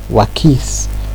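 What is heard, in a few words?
"wakis", Nigerian Pidgin, male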